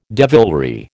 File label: "devilry", American